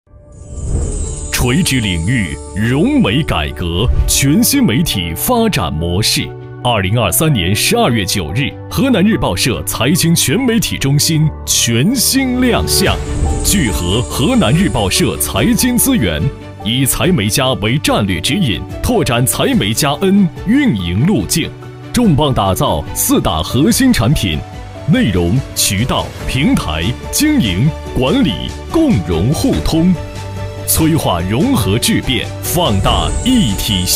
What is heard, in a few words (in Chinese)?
财经媒体中心宣传片; 河南日报社财经媒体中心宣传片